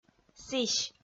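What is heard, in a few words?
"сісь", Komi, female; audio dict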